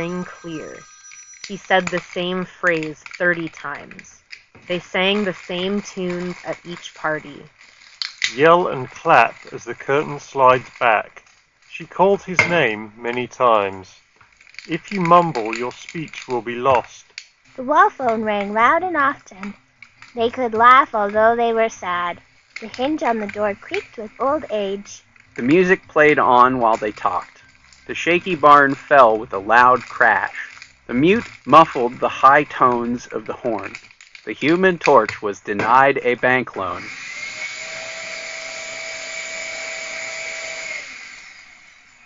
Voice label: Office microphone sample